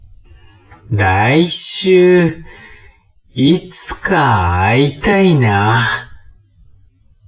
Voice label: man